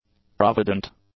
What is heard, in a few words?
"provident", english; adjectives